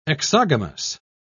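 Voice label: "exogamous", British English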